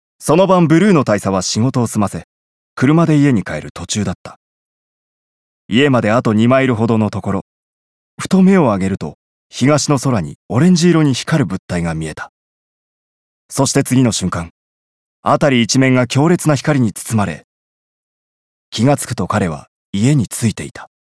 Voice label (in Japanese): UFO番組ナレ